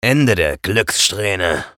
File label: vo chatter negative; eisen; respawn